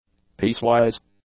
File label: "piecewise", english; adjectives